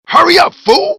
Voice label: backup, grunt